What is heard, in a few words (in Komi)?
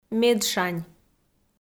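"медшань", Komi-Zyrian, female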